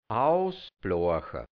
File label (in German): "ausbloacha", hianzisch Mundart, Burgenland